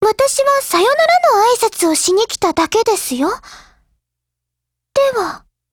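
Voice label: LOADING, Japanese; Carcano1938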